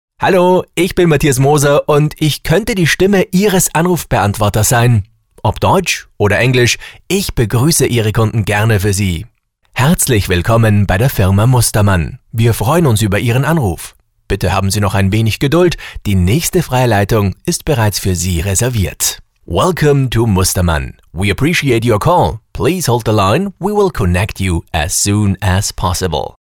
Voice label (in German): Sprecher Telefonansagen